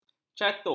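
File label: "catuk", Malay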